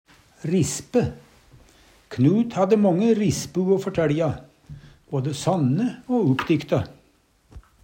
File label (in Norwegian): rispe, Numedalsmål